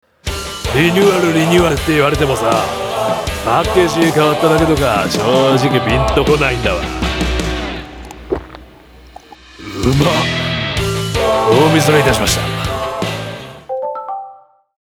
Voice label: drink cm